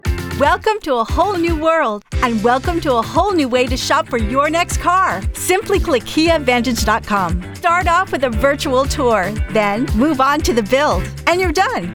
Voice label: High Energy Retail Car; Kia Vantage